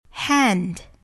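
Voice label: "hand", English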